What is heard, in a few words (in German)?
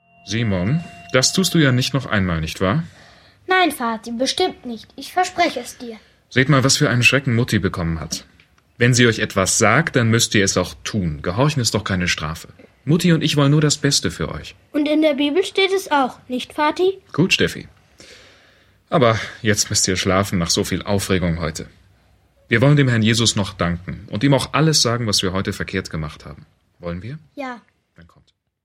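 Hörszene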